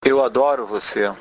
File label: i love you, portuguese br; Eu adoro você